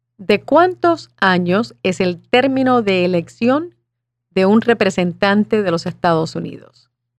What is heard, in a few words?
Spanish